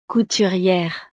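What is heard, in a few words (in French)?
"couturiere", French; profesiones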